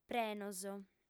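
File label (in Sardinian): "prenos", female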